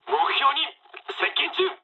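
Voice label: near waypoint mood med, speech japanese; War Thunder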